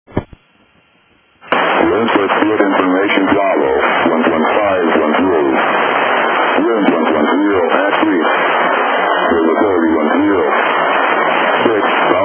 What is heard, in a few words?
ATIS, USA